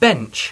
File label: "beach", English